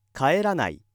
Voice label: male